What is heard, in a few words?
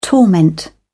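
"torment", British English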